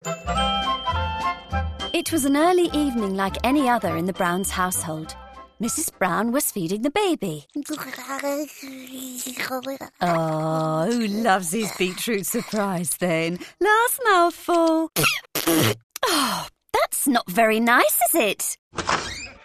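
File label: animation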